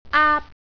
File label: "aap", Cantonese